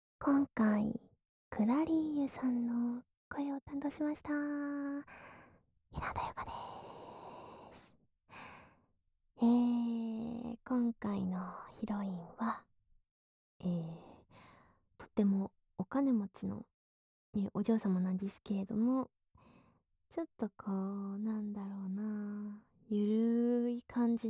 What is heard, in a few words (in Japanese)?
フリートーク; 【おすまし淡々搾精】太い実家のお嬢様に「買われ」、「飼われる」～マイペース天然クールお嬢様に人権を買われイチャあま搾精～【やわらかマゾ向け】